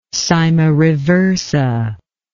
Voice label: "cyma reversa", english